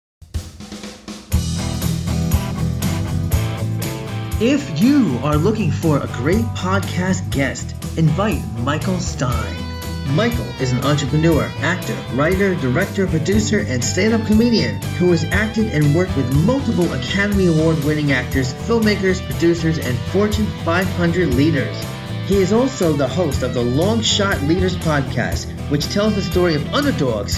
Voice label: audio commercial